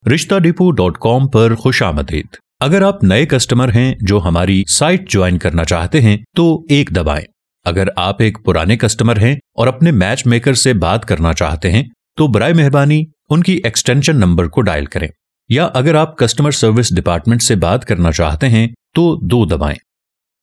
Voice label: IVR; Collective